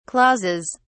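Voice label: "clauses", English, uk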